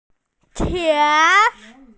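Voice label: "tian2", Mandarin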